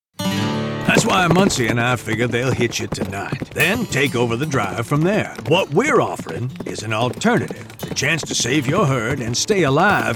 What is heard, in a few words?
Character